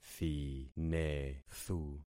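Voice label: "thinethu", concatenated syllables; Affixing experiment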